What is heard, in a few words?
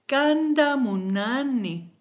i love you, kichwa